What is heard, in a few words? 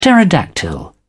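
"pterodactyl", British English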